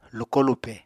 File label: "lukó-lupè", Apatani